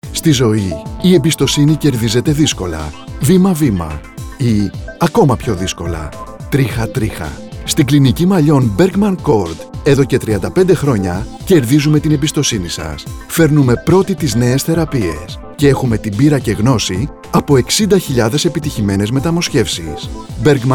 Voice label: radio spots; trixoptwsi metamosxefsi malliwn therapies; trixa